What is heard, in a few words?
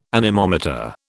"anemometer", British